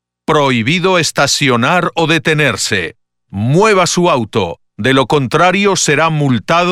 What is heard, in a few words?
Ticketed or Towed (Spanish); Pathfinder